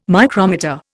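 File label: "micrometer", English, Indian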